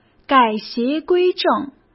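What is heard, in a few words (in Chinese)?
"改邪归正", Mandarin Chinese; hsk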